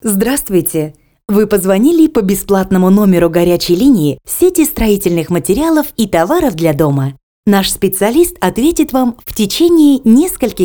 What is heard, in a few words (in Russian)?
Автоответчик